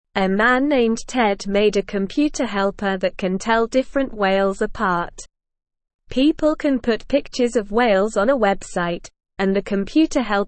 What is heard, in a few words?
English Beginner SLOW Reading; English Newsroom